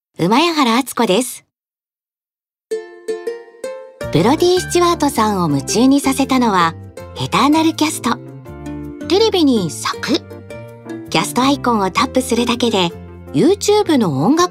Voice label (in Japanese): ナチュラル